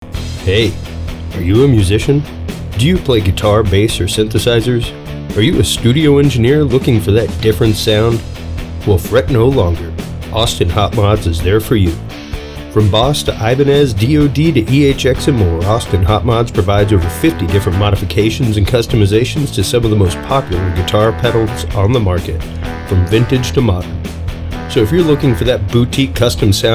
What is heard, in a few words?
Audio Commercial; Austin Hot Mods